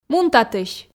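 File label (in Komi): "мун татысь", Komi, female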